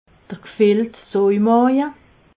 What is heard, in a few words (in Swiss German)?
"gfilltsoimaje", alsace